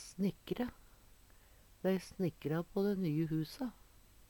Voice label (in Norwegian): snikkre, Numedalsmål; Verb; Handverk (metall, tre, lær); Dei snikkra på dæ nye huse.; Veggli